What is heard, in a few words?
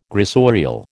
"gressorial", American